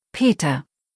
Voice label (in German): "Peter", deutsch, jungennamen